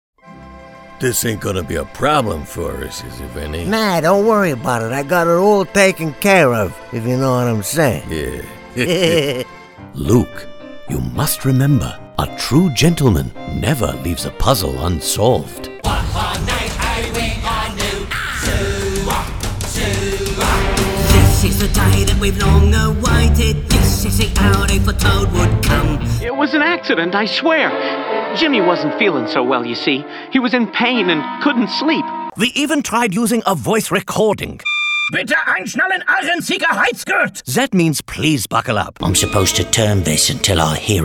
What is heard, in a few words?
Animation